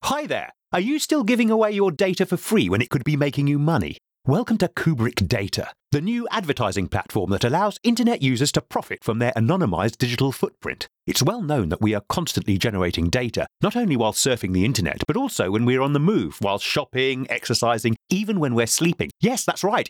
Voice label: We need a man woman young catchy and dinamic voice over for an Expl